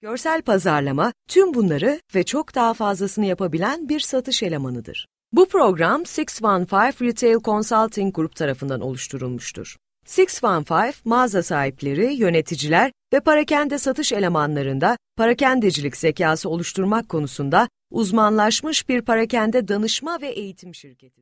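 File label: Turkish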